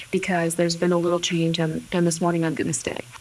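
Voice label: voice acting, es, female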